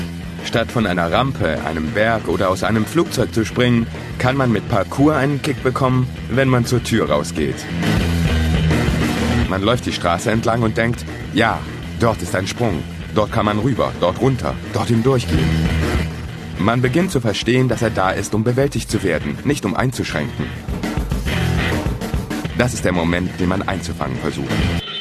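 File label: German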